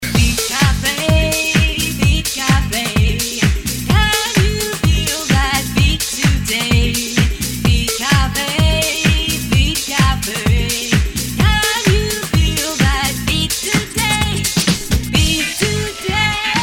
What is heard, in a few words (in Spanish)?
JINGLE Y LOCUCION